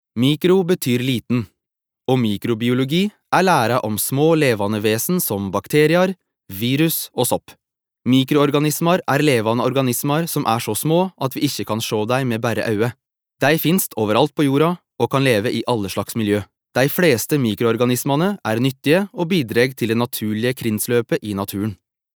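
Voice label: no nynorsk, norsk, mann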